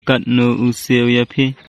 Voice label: Khasi

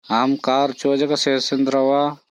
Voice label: Korku; Aam kar chojha sesendrava?

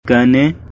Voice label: "Kane", Khasi; This

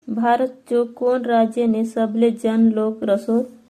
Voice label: Bharatchu kaun rajyane sable janlo, Halbi; Which Indian state has the largest population?

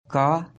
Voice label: "Ka", Baghelkhandi; What

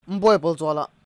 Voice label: Umboi apple chuvala., Lotha

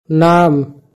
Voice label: "Naam", Magahi

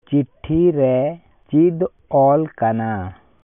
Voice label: Santali; What is written in the letter?

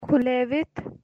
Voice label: Kashmiri; Opened; Khulavith

